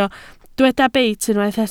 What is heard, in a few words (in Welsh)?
"beit" Welsh